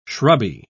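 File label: "shrubby", British English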